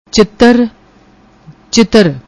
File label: "chittar", Punjabi; ਚਿੱਤਰ